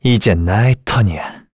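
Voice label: Vox Skill, Korean; Esker; kingsraid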